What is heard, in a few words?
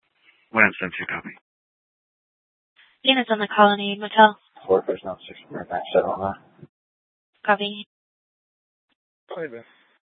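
Police Department Dispatch, scanner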